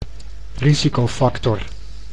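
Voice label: "risicofactor", Dutch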